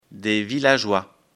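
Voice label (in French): "villageois", French; afrikalan; lecture fr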